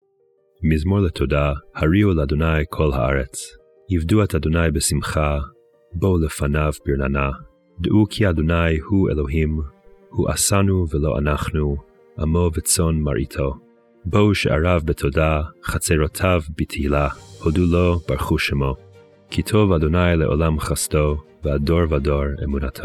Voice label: poetry and prayer psalm hebrew